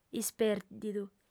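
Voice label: "ispèrdidu", female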